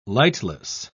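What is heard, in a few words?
"lightless", English, British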